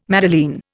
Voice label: "madeleine", Indian